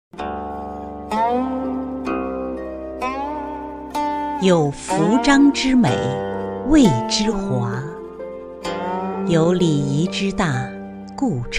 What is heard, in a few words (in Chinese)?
沉稳知性; 穿在身上的中国